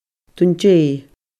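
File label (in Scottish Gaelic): "Dun Deagh", Scottish Gaelic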